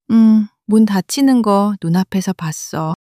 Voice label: sadness, monologue